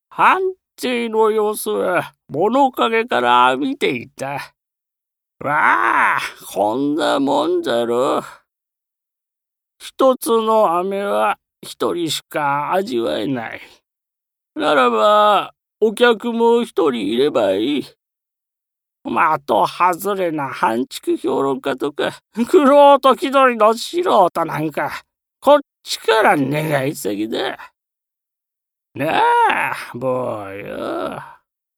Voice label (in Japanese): 老人